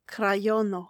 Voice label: "krajono", esperanto; vortoj